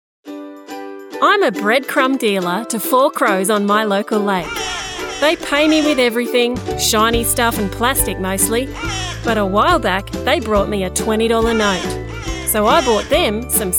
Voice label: AU English Breadcrumbs Funny Commercial